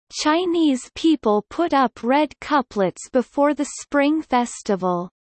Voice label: low